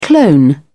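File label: "clone", British English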